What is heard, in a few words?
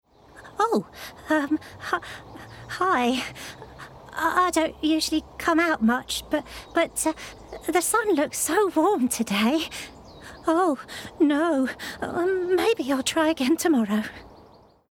Character Shy Worm